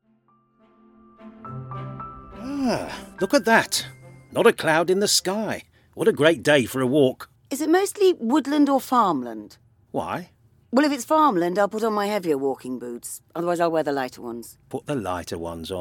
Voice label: Audiodrama

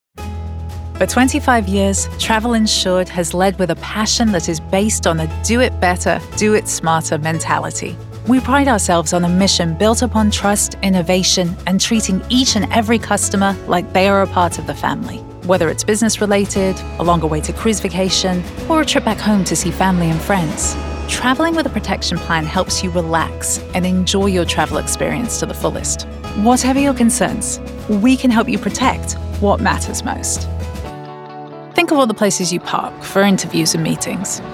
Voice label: Explainer

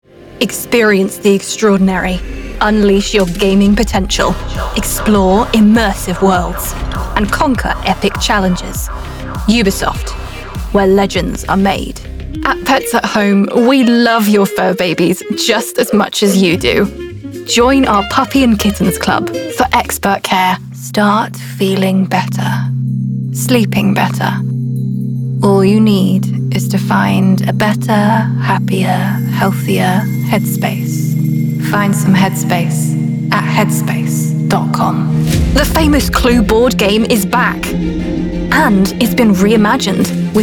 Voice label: Commercial

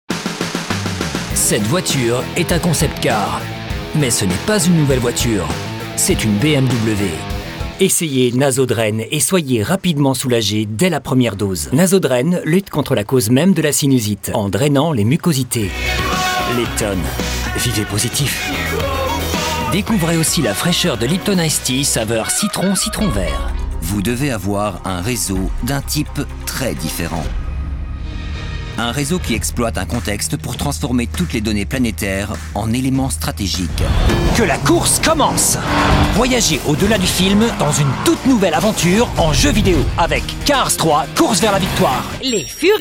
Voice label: French Commercial Voice

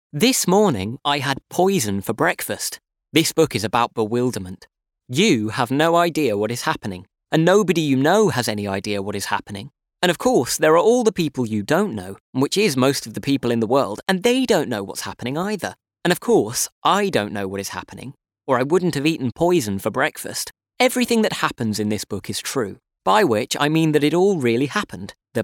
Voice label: Audiobook Kids and YA

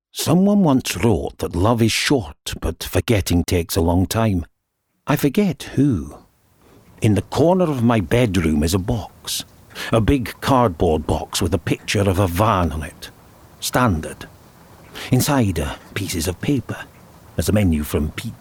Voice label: Audiodrama

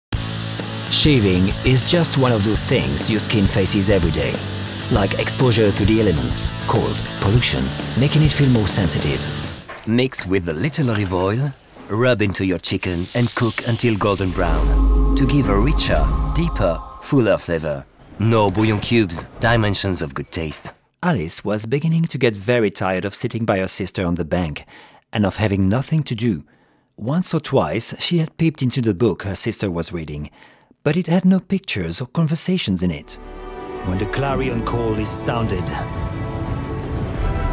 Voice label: English Mixed Voice

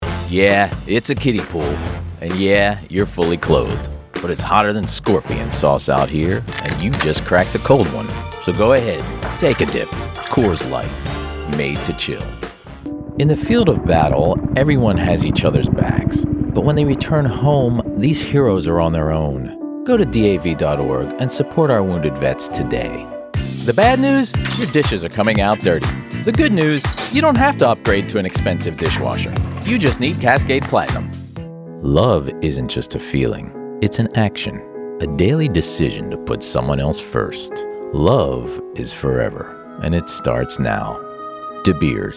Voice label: Commercial

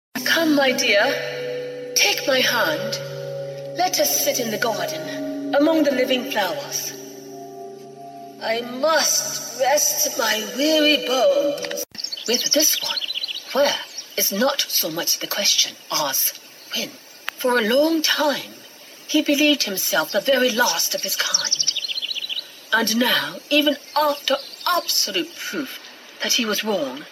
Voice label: Audio Drama

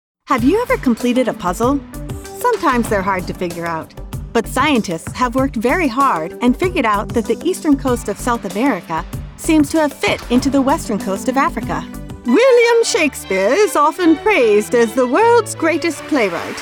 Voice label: k12 Narration E Learning

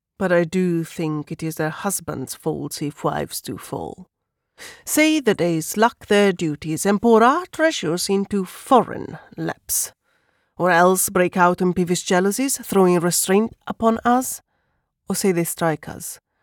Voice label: Shakespeare Verse Monologue Emilia

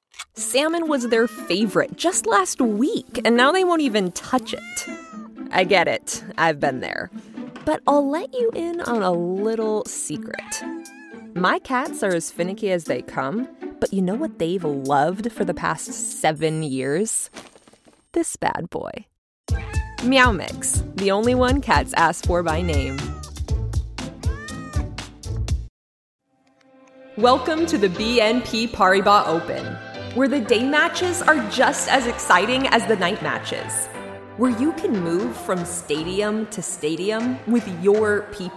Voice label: Commercial

